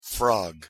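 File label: pronunciation en "frog", English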